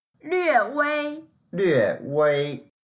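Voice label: "lve4wei1", Mandarin Chinese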